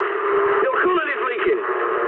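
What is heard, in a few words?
damaged water mood medium, speech English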